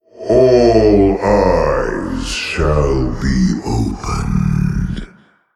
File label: Male Old God